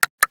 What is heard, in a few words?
Horse Start Adult; Duviri